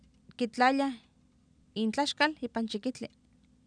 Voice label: kitlaatlaalian iintlaxkal iipan chikihtli, Oapan, female; Oapan minimal pairs 1998